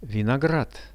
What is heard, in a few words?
"vinograd", Russian; виноград